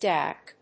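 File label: "Dak", English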